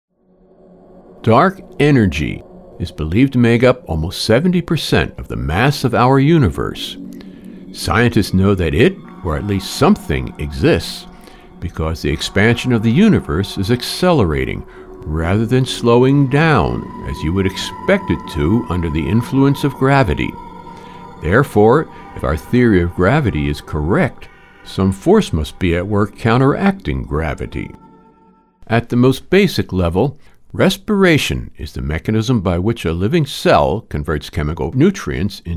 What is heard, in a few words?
Explainer, Mature, Relaxing, Confident Male